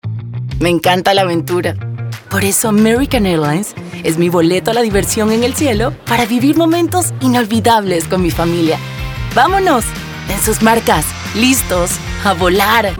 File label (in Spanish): animado conversado